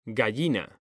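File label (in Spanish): "gallina", Spanish, male; mekanta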